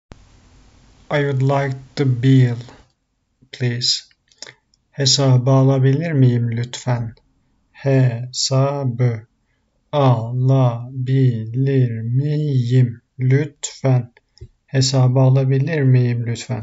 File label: I d like the bill please, turkish; the talking turkish phrasebook